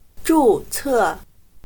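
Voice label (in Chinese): "注册", Chinese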